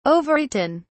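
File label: "overeaten", British English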